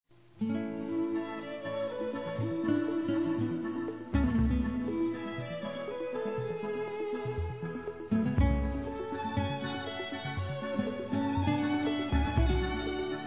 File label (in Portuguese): Livre Para Felicidade MASC; Telemensagens; Conquistas Cantadas paqueras; Telemensagens 2017